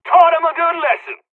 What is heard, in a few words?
dialog, dialogs serbian; us midway; War Thunder